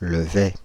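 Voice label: "Le Vey" French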